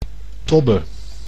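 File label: "tobbe", Dutch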